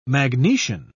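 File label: "magnesian", British English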